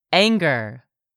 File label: "ANGER", english; 3000 words